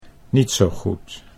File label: "niet zo goed", Dutch